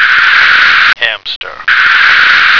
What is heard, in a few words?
"hamster", American